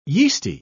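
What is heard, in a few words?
"yeasty", British English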